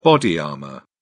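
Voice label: "body armour", British English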